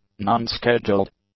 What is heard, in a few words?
"non-scheduled", english; adjectives